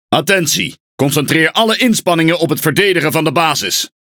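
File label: NL Speech Quick Commands Ally Base, Dutch; World Of Warships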